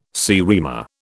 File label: "seriema", British